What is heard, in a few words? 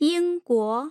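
"yingguo", Mandarin Chinese, tone pairs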